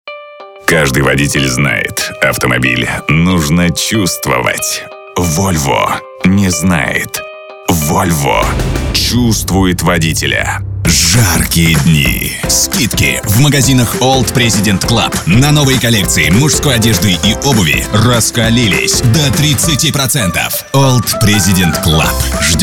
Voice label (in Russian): muzhskie golosa, diktory